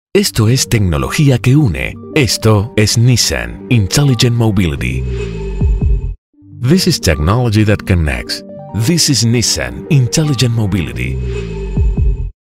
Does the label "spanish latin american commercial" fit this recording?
Yes